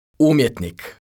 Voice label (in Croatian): "umjetnik", Croatian